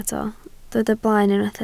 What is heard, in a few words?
"blaen", Welsh